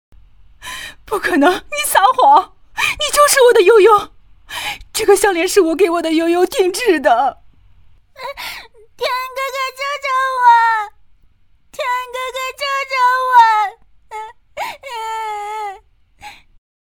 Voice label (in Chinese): 角色音男童女童妈妈音